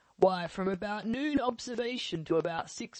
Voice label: DePulse SL Resynthesis; VowelBender; Source Formant examples